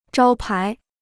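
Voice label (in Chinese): "招牌" Chinese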